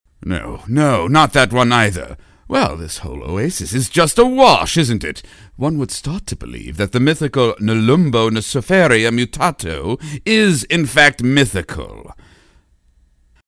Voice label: FOP Aloof Dandy; animation

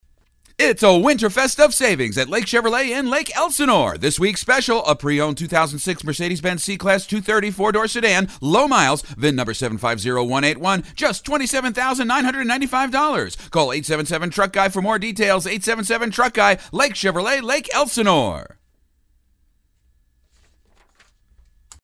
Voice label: FAST LOCAL CAR DEALER TAG, commercials

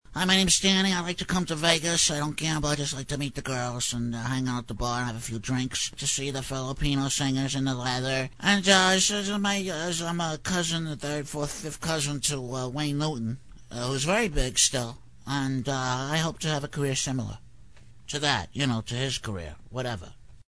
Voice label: Loser, Likeable & Pathetic, cartoon; animation

